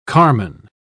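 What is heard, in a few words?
"carman", British English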